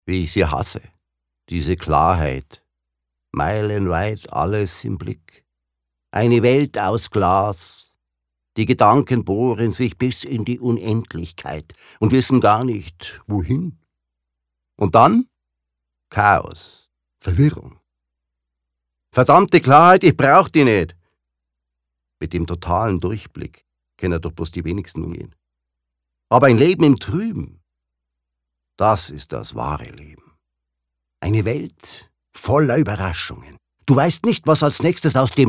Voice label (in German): Dialekt Bayerisch